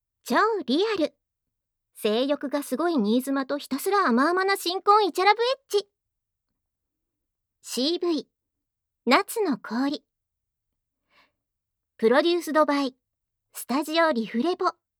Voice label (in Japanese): タイトルコール, ASMR